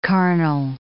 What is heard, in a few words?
"carnal", English